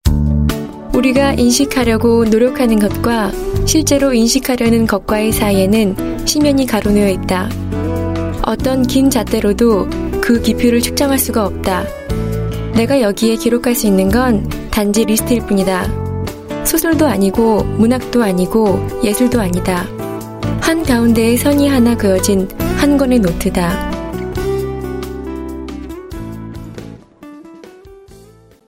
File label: Korean Corporate; Female